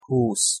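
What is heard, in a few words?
"husz", Hungarian pronunciation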